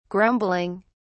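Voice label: "grumbling", British English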